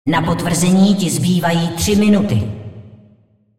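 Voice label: announcer female tournaments lockin 3min, cs cz; clash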